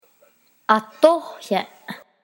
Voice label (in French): "Atoyen", hache